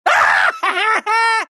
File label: laugh; dota; meepo